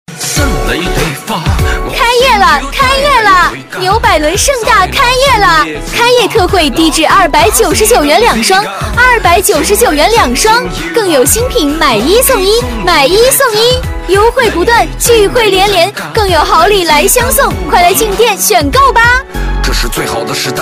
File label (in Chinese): 激情活力女 盛大开业; 激情力度; 纽百伦